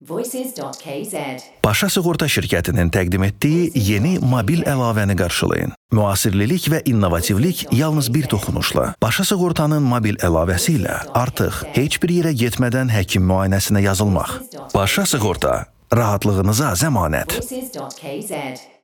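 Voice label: Voice Azerbaijani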